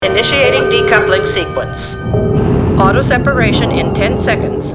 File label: initiating decoupling sequence, computer voice